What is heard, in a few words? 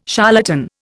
"charlatan", Indian